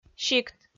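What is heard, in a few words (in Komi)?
"сикт", Komi, female; kpv audio dict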